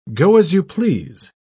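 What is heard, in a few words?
"go-as-you-please", British English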